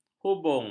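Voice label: "hubung", Malay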